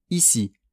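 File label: "ici", French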